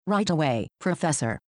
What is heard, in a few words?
computer, "right away"; time-lab